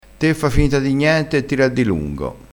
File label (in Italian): "a dilungo", Crespinese